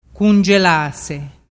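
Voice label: "cungelase", Vernazzese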